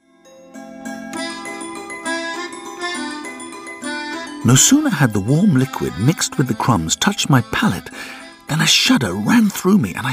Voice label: Prose, Lyrical, Neutral; Madaleine (Marcel Proust -BBC Radio 4)